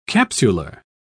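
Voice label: "capsular", English, British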